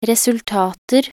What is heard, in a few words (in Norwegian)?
"resultater", nb NO